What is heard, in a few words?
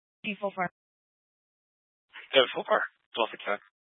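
Fire Dispatch, scanner audio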